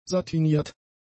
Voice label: "satiniert", german; adjectives

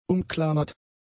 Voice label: "umklammert", German; adjectives

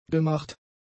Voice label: "gemacht", German; adjectives

